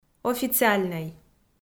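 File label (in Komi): "официальнӧй", Komi, female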